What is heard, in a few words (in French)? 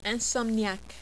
"insomniaque", French